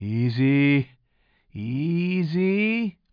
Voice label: commentator